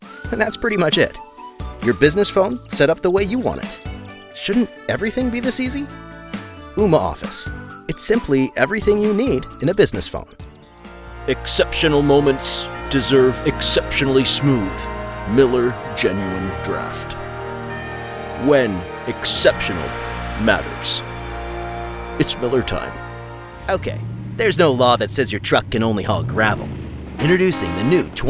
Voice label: Commercial